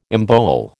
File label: "embowel", American